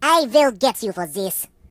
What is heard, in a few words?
"I'll get you", Russian; hedgewars; HedgewarsMobile